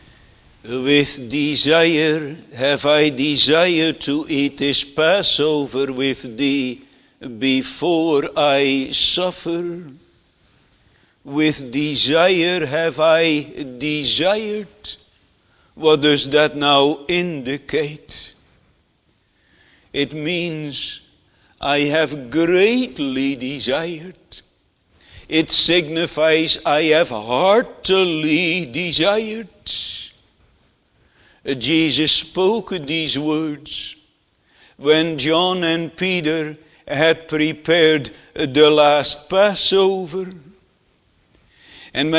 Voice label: Sermon; The Desire of the Spiritual Bride; song of solomon 1 2